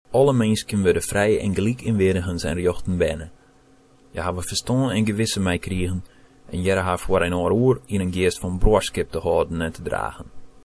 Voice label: udhr westfrisian

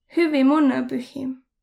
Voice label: easter, voro

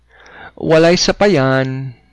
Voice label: youre welcome, cebuano

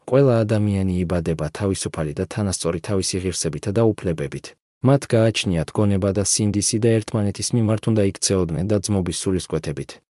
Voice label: udhr georgian

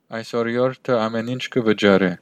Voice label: pay, armenian western

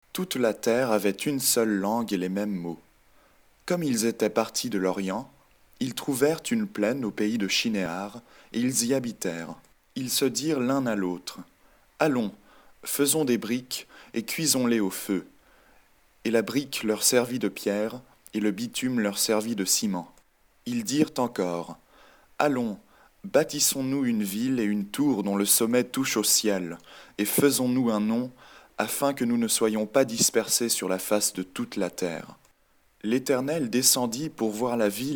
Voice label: French; ALors toute la terre vniuerſelle eſtoit dung meſme language & parolle.

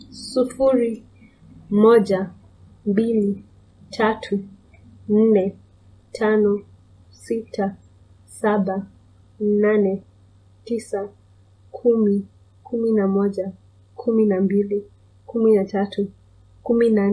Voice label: numbers swahili

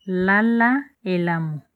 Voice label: goodnight, lingala

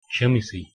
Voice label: excuse me, Kannada

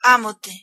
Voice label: i love you, galician

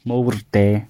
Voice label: good luck, gwentian

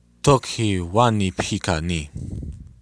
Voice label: good luck, lakota

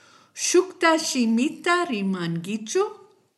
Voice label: do you speak a language other than, kichwa

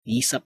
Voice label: cantonese, numbers

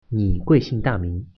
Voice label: name, mandarin; 你貴姓大名？; [你贵姓大名？] (nǐ guìxìng dàmíng?); Chinese (Mandarin)

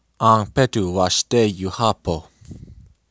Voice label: have a nice day, lakota

